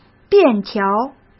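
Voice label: "便条", Mandarin Chinese; chinese-flashcards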